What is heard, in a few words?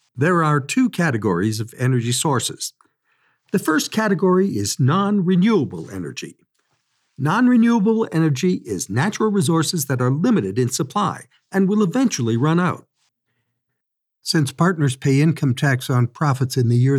Demo Reel eLearning